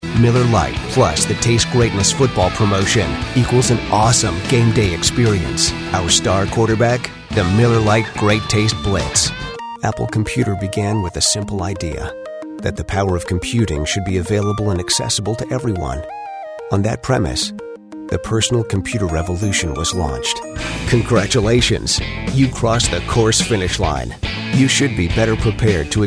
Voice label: non broadcast narration